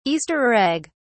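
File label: "easter egg", British English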